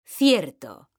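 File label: "CIERTO", Spanish, female; mekanta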